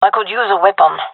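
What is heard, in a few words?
request weapon, female; vo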